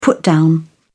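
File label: "put down", British English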